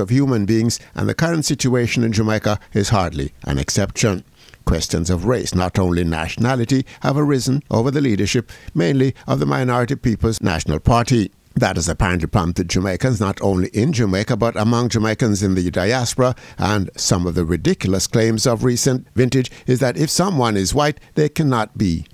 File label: COMMENTARY